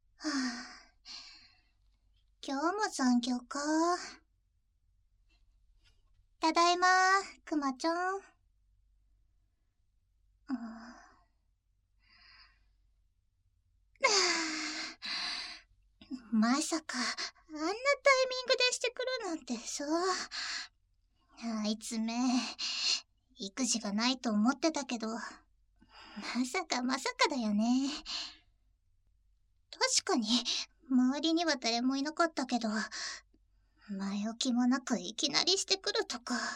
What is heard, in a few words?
voice Only, ASMR